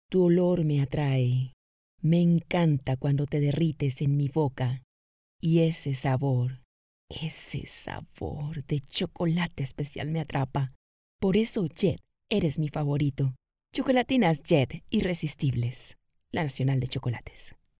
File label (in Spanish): PROMOCIONAL; JET